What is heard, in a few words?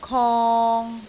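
"kong3", Cantonese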